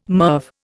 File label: "MIRV", Indian